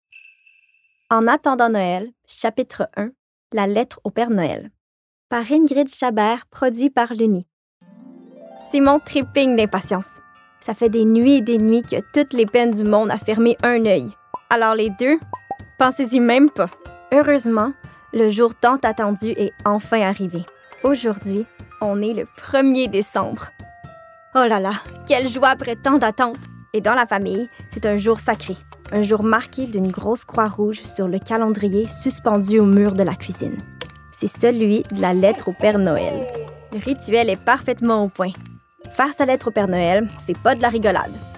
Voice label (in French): narration demo voix